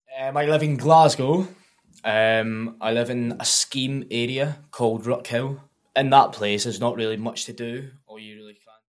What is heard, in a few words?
NORTH GLASGOW MALE aged 19